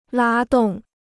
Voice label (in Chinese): "拉动", Chinese